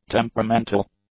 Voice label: "temperamental", English; adjectives